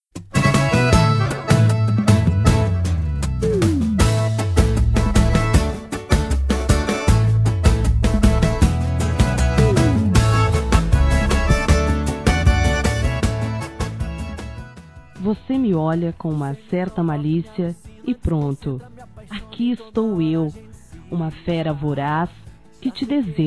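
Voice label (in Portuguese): Romantica, feminino; Telemensagens; Românticas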